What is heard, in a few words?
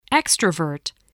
"extrovert", voice